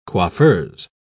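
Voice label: "coiffeuse", British English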